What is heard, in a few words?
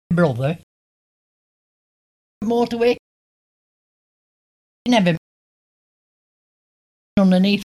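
Nonrhotic final weak: brothe(r) moto(r)way neve(r) unde(r)neath, Chorley district; rhoticity; accents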